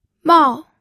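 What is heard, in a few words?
"mao", Mandarin Chinese, fourth tone; pinyin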